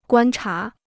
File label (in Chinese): "观察", Chinese